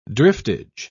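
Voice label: "driftage", British English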